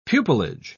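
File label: "pupilage", British English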